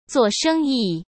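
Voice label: "做生意" Mandarin Chinese; hsk; chinese-flashcards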